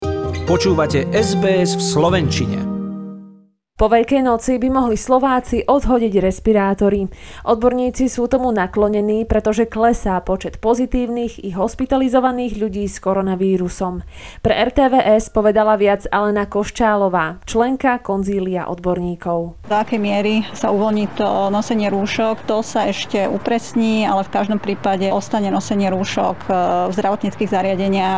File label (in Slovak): slovak; sbs